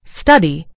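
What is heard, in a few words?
"study", British English; gcompris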